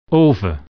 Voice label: "oven", dutch